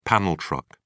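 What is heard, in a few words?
"panel truck", British English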